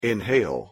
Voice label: "inhale", word